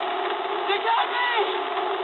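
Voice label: aircraft destroyed mood high, speech English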